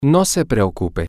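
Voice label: dont worry, spanish, male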